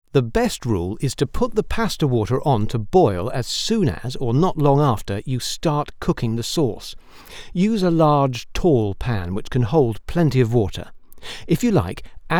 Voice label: How To recipe video

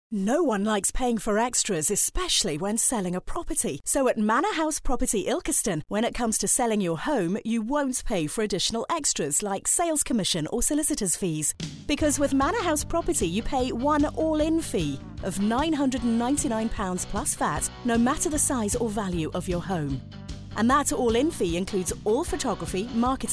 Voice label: Voiceover

